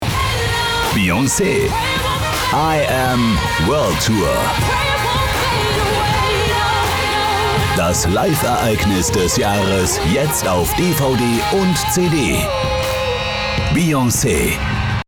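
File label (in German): werbung, deutsch männer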